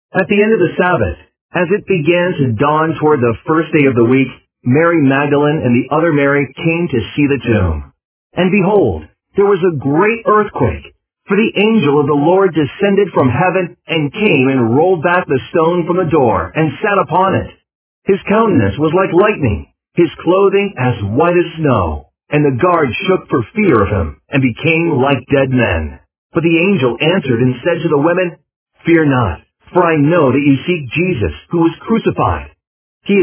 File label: Underscore Voiceover